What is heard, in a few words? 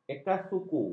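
"ekasuku", Malay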